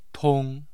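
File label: "tong1", Mandarin Chinese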